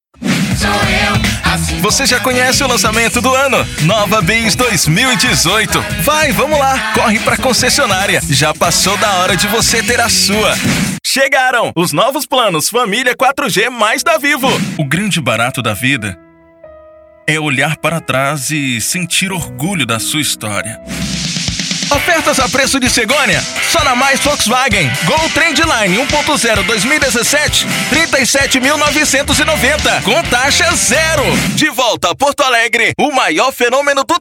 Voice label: demo locucao brasil

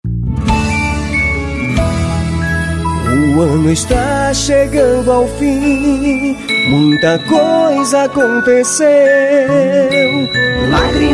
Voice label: banco de vozes banco de voz jingle de natal jingle natalino jingle de fim de ano; jingle de ano novo

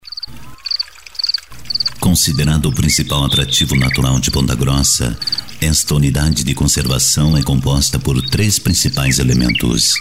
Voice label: locutor voz documentario

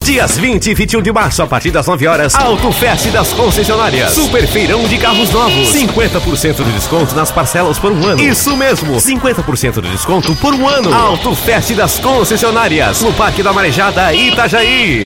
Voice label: spot; autofest15

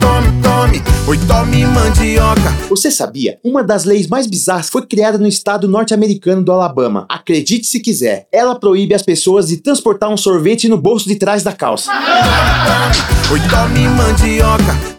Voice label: spot piada; rei da mandioca

